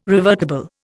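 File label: "revertible", Indian English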